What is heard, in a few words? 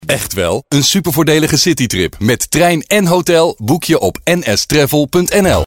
DUTCH Male